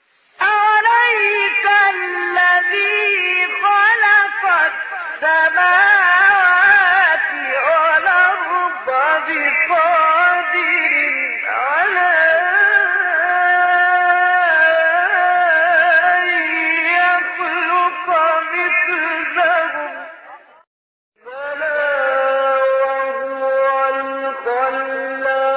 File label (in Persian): تلاوت زیبای آیه 80 81 سوره یس